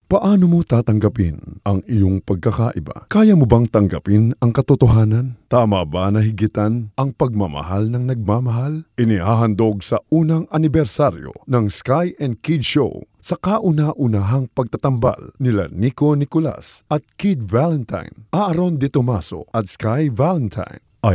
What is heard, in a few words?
Voice Over for Trailer